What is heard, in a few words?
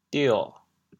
"dio6", chaoyang